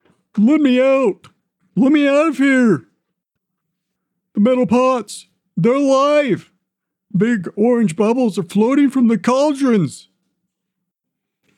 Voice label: Male - Frantic - Over the Top - High Pitched; "The Whispering Spheres"